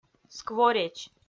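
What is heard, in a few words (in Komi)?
"сквореч", Komi, female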